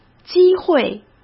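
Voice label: "机会", Mandarin Chinese; hsk; chinese-flashcards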